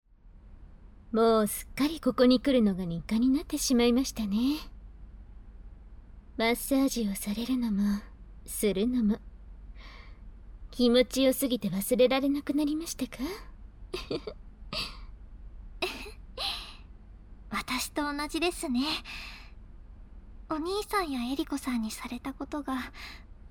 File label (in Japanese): マッサージ研修, ASMR